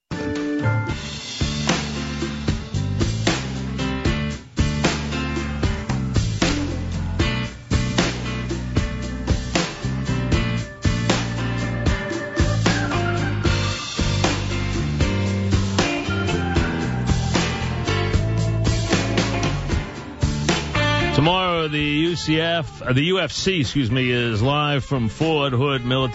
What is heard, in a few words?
Commercial Read; WFAN; UFC